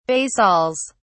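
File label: "basils", British English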